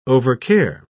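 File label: "overcare", British English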